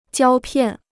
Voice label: "胶片", Chinese

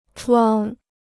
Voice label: "闯", Chinese

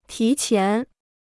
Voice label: "提前", Chinese

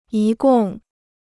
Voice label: "一共", Chinese

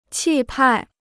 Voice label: "气派", Chinese

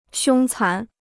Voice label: "凶残", Chinese

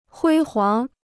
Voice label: "辉煌", Chinese

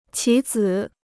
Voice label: "棋子", Chinese

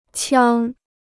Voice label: "枪", Chinese

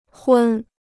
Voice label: "昏", Chinese

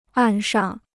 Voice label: "岸上", Chinese

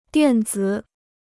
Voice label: "电子", Chinese

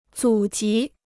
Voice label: "祖籍", Chinese

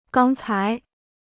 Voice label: "刚才", Chinese

